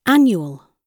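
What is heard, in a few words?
"annual" British English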